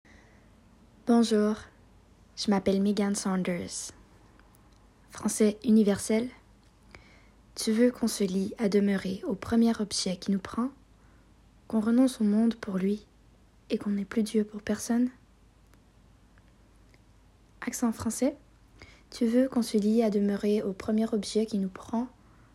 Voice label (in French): voix accents